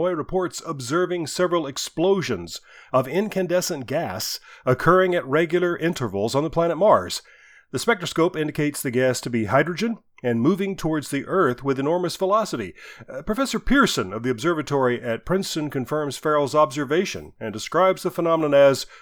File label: news bulletin